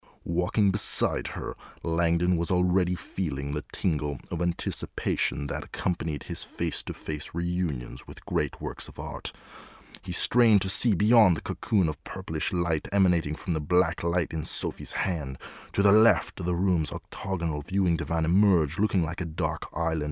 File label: Voice Demo sinister